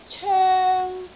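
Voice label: "coeng1", Cantonese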